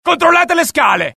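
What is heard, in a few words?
alert, Italian; mohaa; dialogue; sighted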